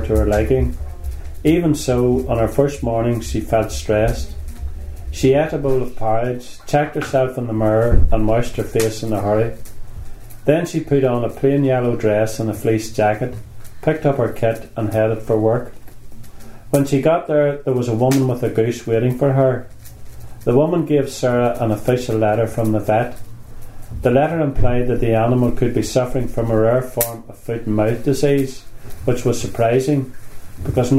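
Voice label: passage, Irish English, The North of Ireland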